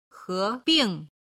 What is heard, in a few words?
"hebing", Chinese